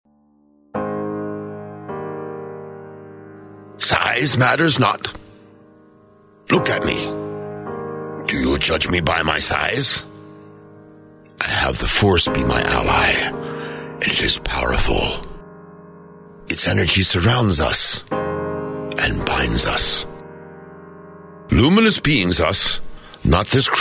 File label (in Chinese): 模仿; 尤达大师; 外语